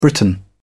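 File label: "britain", British English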